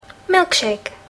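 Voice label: "milkshake", English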